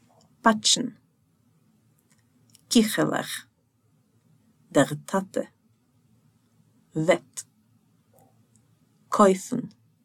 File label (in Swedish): Ordlista, Jiddish